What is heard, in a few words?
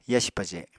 "yasi paje", Apatani